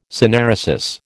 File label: "synaeresis", American